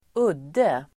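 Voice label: "udde", Swedish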